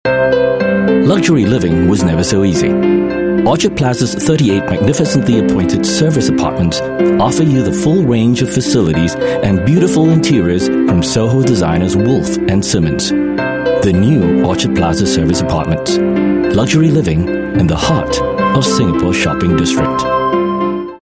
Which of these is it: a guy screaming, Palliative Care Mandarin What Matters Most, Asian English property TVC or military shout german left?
Asian English property TVC